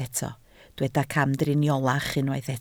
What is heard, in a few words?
"camdriniolach", Welsh